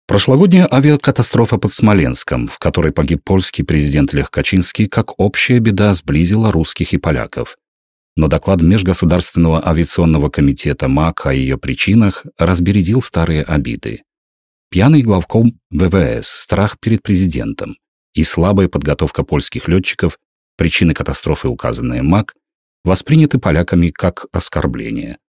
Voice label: russian male

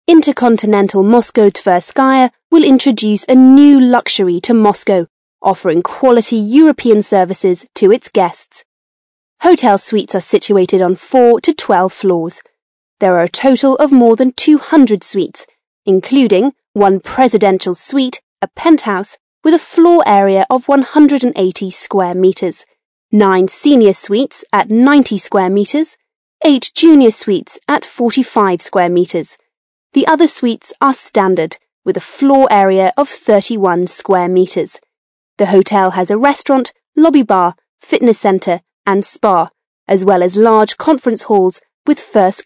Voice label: british english female

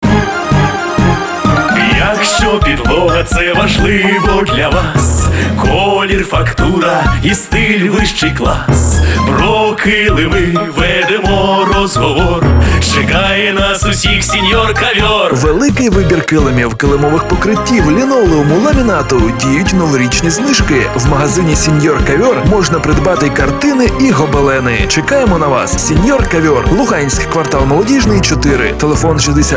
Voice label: ukraine male

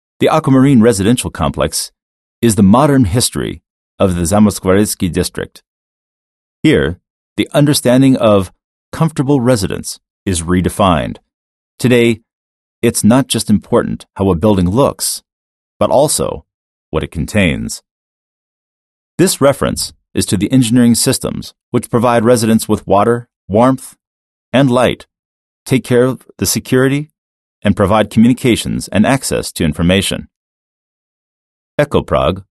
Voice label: american english male

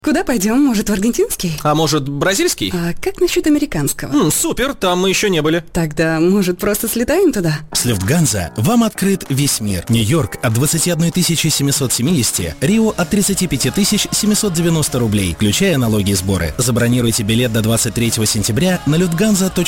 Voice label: russian male; lufthansa

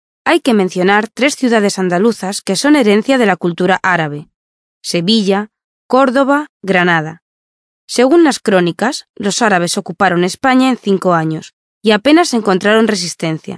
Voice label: spanish female

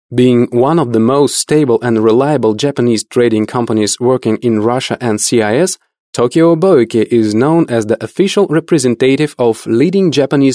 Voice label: english male

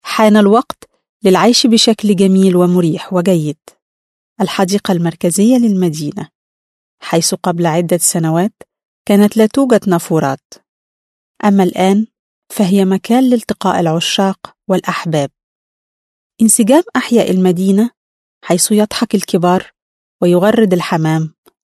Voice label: arabic female

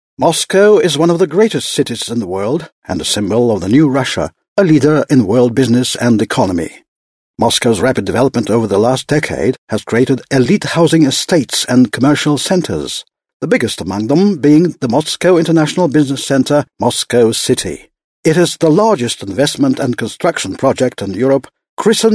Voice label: british english male

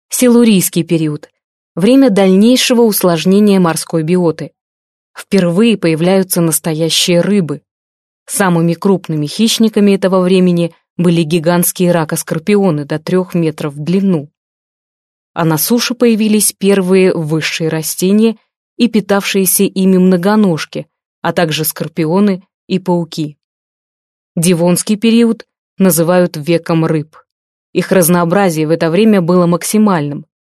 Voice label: russian female

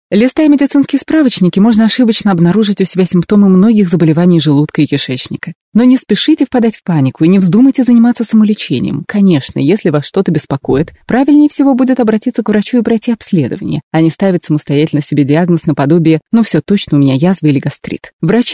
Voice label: russian italian female

